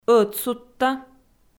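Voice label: "ӧтсудта" Komi, female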